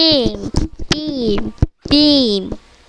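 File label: "beam", spoken word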